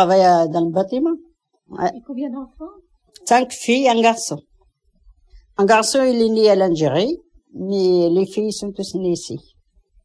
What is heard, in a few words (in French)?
Alphabetisation femme kabyle